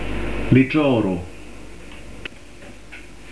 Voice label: "litroru", Carigliaro